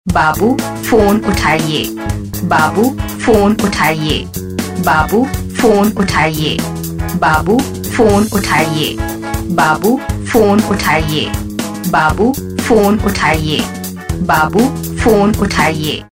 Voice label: Babu phone uthaiye saying

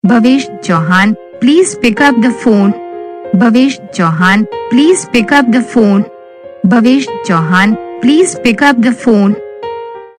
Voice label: pick up phone saying, Ringtones

